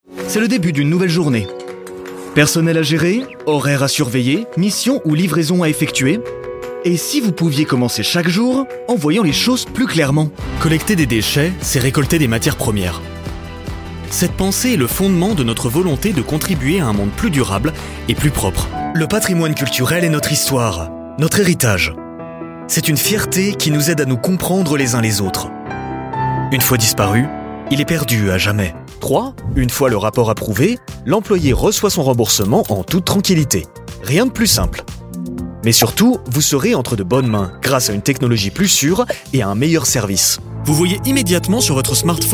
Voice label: french male corporate